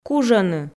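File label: "кужӧны", Komi, female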